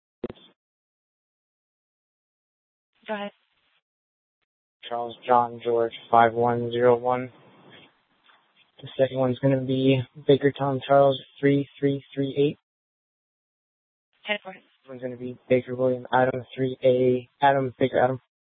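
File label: police department dispatch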